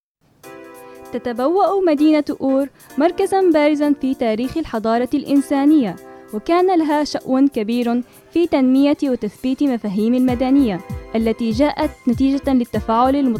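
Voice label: narration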